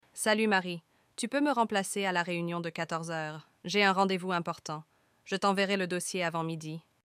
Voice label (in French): Quebec Travail